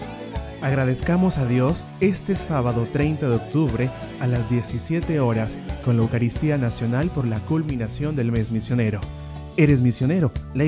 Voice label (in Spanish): PROMO; DOMUND 2021